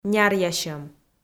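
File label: "няръясьӧм", Komi, female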